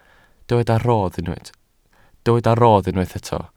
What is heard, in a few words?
"rodd", Welsh